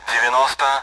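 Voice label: Speech Sound Russian Common AWACS Numbers; DCS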